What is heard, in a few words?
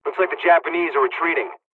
dlg, hungarian; War Thunder